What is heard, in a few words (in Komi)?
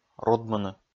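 "рудмыны", Komi, male; kpv audio dict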